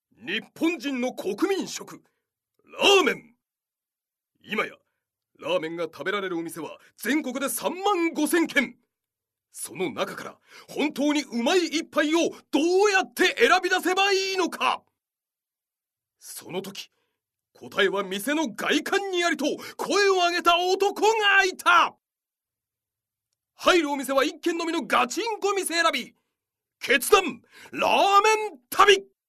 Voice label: narration